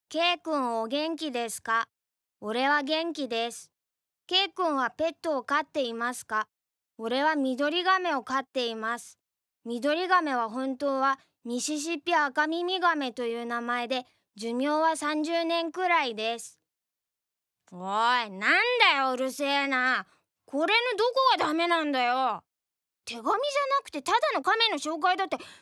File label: elementary school male